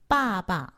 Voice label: "baba", Chinese; Survival Chinese